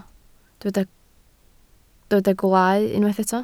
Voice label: "gwaalau", Welsh

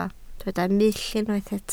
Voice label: "mull", Welsh

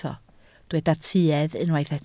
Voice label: "tuedd", Welsh

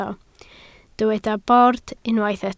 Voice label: "bord", Welsh

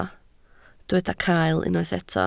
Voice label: "cael", Welsh

